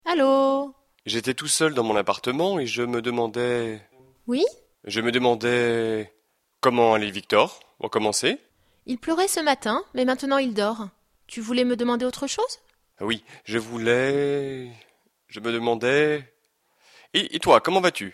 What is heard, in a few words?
dialogue, French